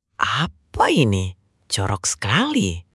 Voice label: indonesia, negative phrases

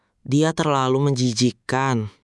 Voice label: indonesian phrases

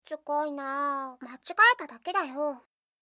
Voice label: anime, Irritated & Angry Phrases from Anime Girls

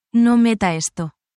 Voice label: spanish, spanish phrases

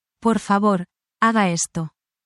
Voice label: spanish, spanish phrases

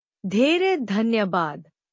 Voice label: Nepali, thank you in nepali

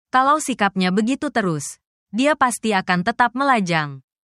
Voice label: indonesian phrases

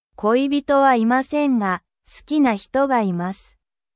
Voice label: japan

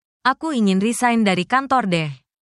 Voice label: indonesian phrases

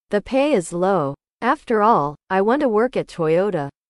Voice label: english

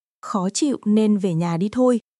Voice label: Vietnamese; 日常の不満、怒り、批判といった感情をベトナム語でどのように表現するのか、ネガティブな感情を持つフレーズ集

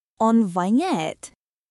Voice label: Russian, russian phrases insults; ロシア語のネガティブなフレーズ

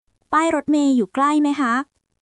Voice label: Thai, basic thai phrases

